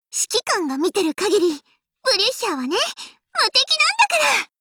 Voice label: battle war cry

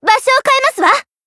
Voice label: Battle Tactical Action; Yukari

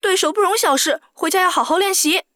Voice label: sad; F-5

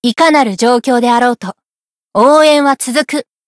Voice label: Cheerleader Battle Tactical Action; Utaha

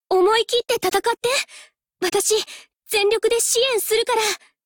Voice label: warcry

